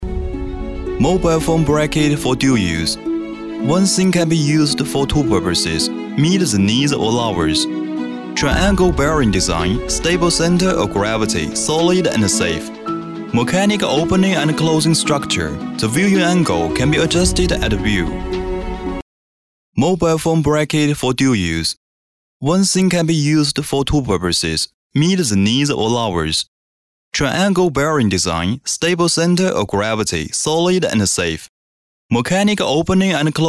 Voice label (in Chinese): 男英 外语 国内英语 电子产品高端品质